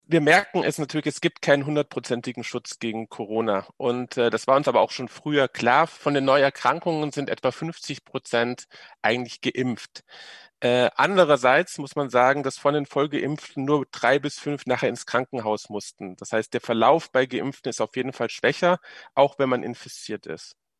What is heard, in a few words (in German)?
radio; Israel Oeffnung fuer Touristen verschoben; radio SAW